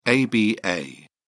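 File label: "aba", British English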